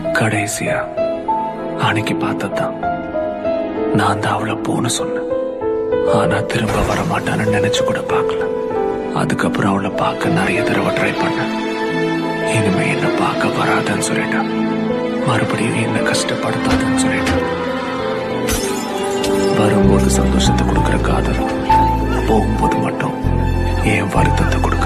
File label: Sad Tamil Dialogue Ringtone